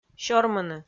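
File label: "сёрмыны" Komi, female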